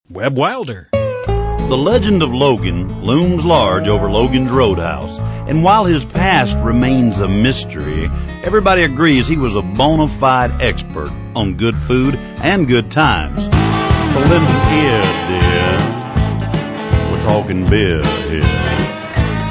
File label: all male; Male Talent; Spotland Productions